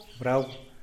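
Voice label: "brau", lizard, Tlacochahuaya Zapotec; animals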